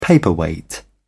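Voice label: "paperweight", British English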